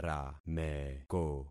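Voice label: "rameko", concatenated syllables; Affixing experiment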